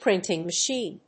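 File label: "printing machine", English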